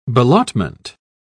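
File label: "ballottement", British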